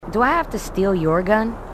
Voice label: female marine; marine tough; h2dialogue